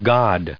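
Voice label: "God", American English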